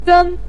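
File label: "zen", Mandarin Chinese syllable, neutral tone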